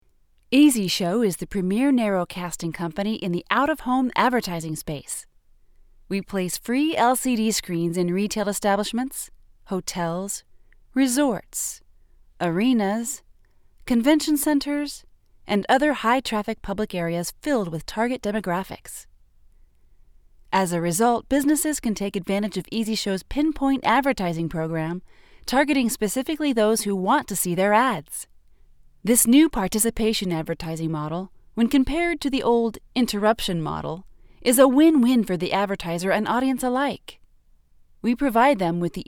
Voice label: voiceovers